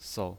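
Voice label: "sou", Mandarin Chinese pinyin, neutral tone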